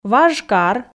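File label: "важ кар", Komi, female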